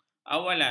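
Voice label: "awalan", Malay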